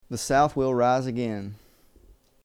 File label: Southern American English, The South will rise again.